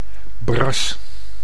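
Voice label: "bras", Dutch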